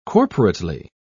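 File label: "corporately", British English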